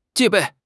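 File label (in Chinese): "戒备", Chinese; HSK; HSK6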